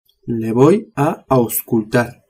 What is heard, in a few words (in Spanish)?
"auscultar", spanish